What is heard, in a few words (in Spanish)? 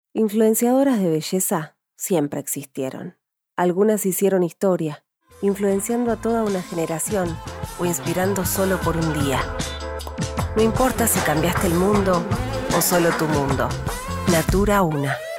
comerciales español argentino